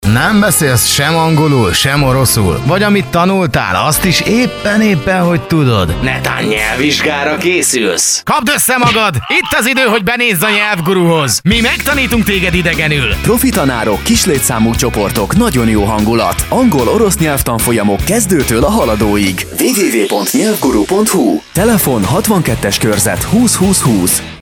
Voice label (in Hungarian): reklam; Nyelvguru; Radio88